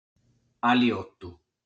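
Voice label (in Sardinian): "Aliotu", Sardinian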